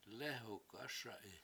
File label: lEhukASa7i ill dry it, Washo